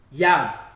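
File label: "yab", Nigerian Pidgin, male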